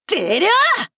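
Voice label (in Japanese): 「でりゃ！」, 男の子 王道系少年主人公 戦闘ボイス 攻撃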